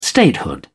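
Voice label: "statehood", British English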